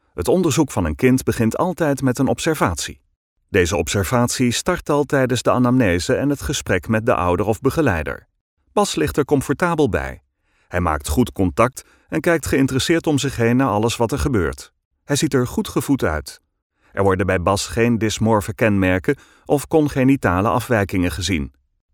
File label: dutch